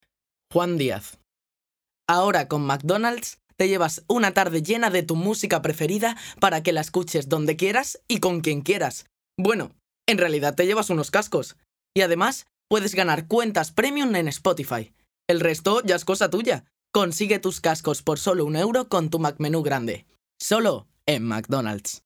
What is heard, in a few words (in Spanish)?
Publicidad